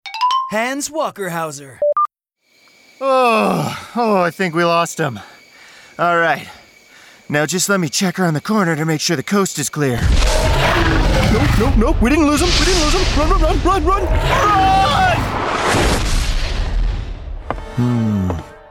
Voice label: Character